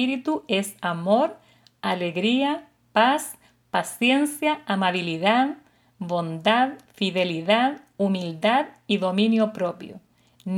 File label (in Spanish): devocional